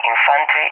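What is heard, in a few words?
"infantry" English, speech; DCS; Allied Flight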